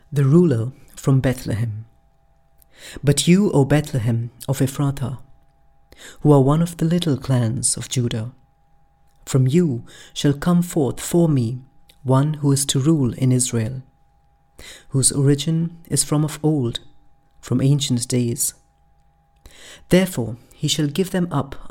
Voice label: Carol Service Reading